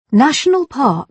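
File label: "national park", British English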